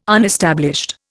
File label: "unestablished", Indian